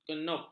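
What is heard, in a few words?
"kenup", Malay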